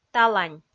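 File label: "талань", Komi, female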